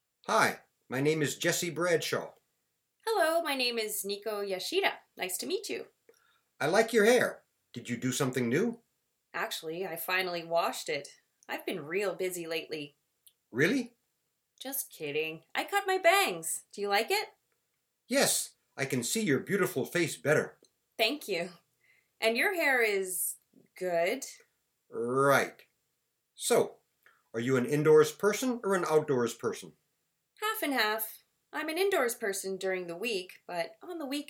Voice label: Conversation